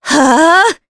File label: Casting, jp; Veronica; kingsraid